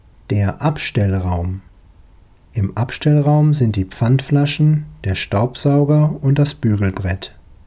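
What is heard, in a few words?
"Abstellraum", German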